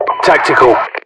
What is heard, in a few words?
title tactical, UK; english; voiceovers; mpvoice